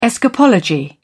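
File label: "escapology", British English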